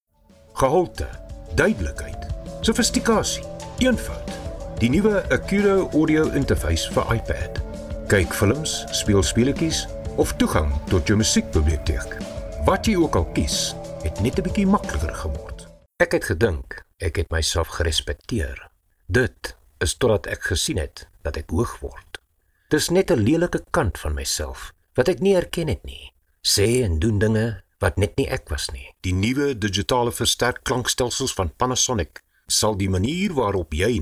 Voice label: afrikaans commercial

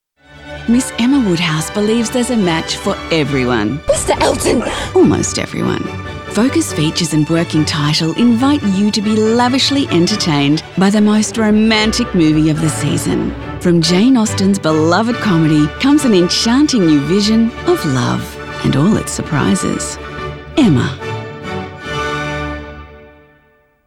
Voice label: english australian movie trailers

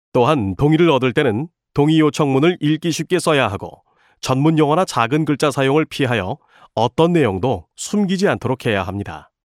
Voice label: korean commercial

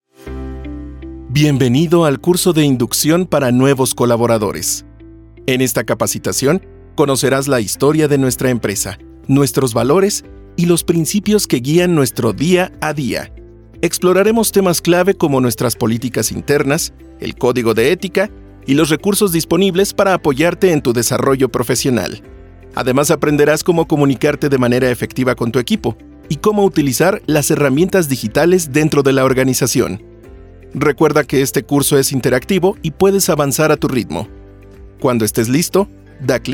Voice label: spanish latin american e-learning

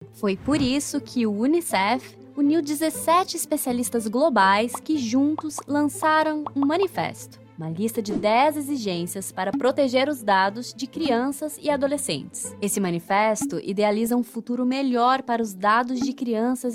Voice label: portuguese brazilian corporate videos

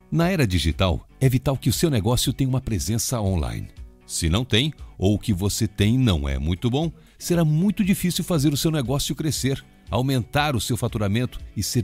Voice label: portuguese brazilian e learning

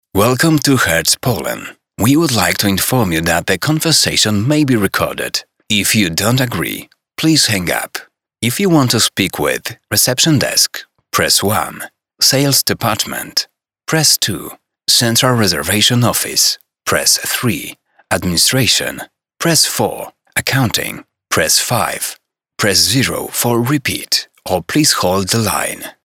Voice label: English commercial

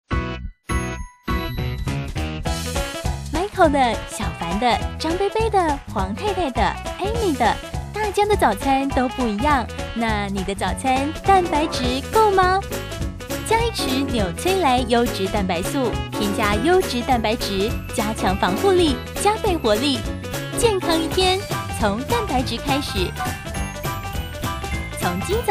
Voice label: Chinese Mandarin explainer videos

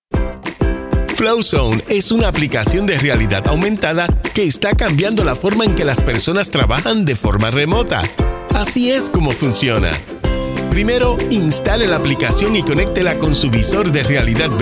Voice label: spanish latin american

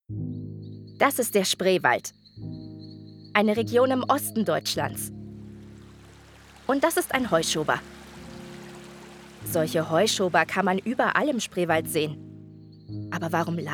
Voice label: German documentaries

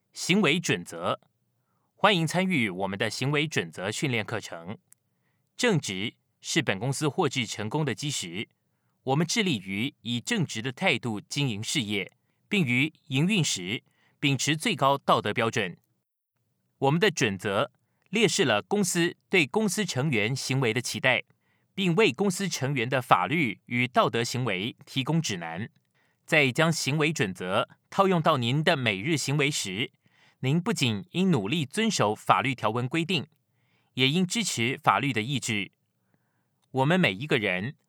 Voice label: chinese mandarin e-learning

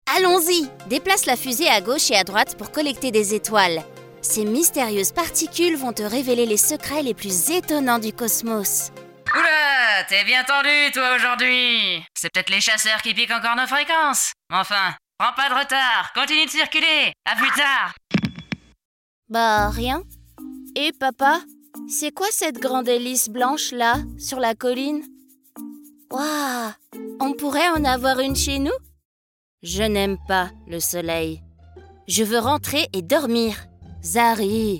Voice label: french animation

